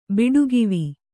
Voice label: "ಬಿಡುಗಿವಿ", Kannada

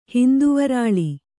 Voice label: "ಹಿಂದುವರಾಳಿ", kannada

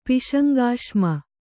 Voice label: "ಪಿಶಂಗಾಶ್ಮ", Kannada

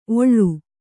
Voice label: "ಒಳ್ಳು", Kannada

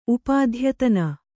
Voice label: "ಉಪಾಧ್ಯತನ", Kannada

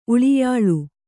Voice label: "ಉಳಿಯಾಳು", Kannada; uḷiyāḷu; noun

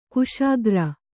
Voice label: "ಖುಷಿಗಾರ", Kannada

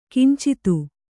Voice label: "ಕಿಂಚಿತ್ತು", Kannada

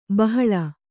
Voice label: "ಬಹಲ", Kannada

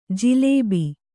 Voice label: "ಜಿಲೀಬಿ", Kannada

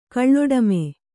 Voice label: "ಕಳ್ಳೊಡಮೆ", kannada

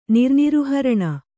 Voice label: "ನಿರ್ನಿರುಹರಣ", Kannada

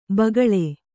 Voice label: "ಭೂಗೋಳ", Kannada

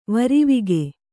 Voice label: "ವರಿವಿಗೆ", Kannada

